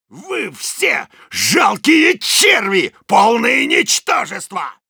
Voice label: Soldier taunts ru

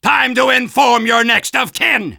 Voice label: Soldier taunts

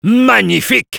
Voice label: positive vocalization, fr; Magnifique !; Spy

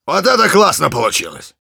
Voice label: positive vocalization, ru; Engineer; Вот это классно получилось

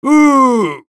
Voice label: jeers, es; Soldier

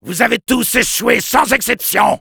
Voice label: Soldier jeers, fr

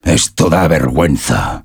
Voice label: domination, es; "Esto da vergüenza."; Respuestas del Sniper

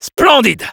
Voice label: positive vocalization, fr; Splendide !; Spy

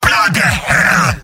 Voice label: Demoman negative vocalization

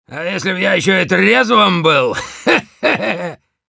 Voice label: Demoman cheers, ru

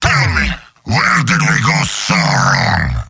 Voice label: Heavy jeers

